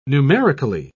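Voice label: "numerically", British English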